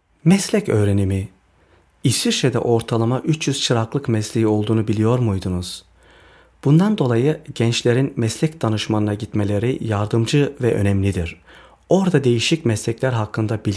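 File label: Turkish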